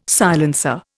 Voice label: "silencer", Indian

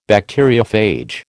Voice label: "Bacteriophage", American

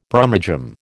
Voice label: "Brummagem", American

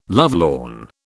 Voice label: "lovelorn", British

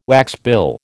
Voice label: "waxbill", American

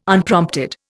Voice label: "Unprompted", Indian

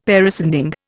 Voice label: "parascending", Indian; Dictionary

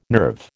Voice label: "nerve", American

